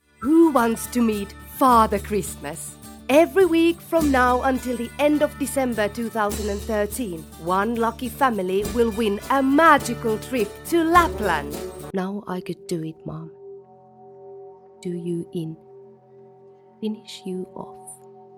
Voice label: female Finnish English with Finnish accent compilation